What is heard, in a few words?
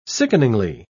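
"sickeningly", British English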